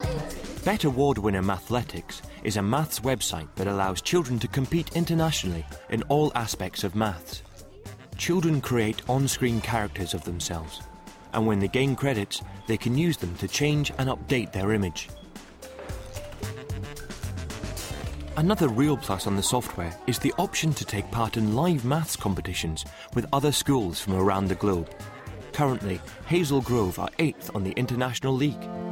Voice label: Narration